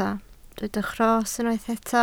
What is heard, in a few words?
"rhos", Welsh